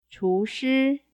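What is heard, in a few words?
chushi, chef, mandarin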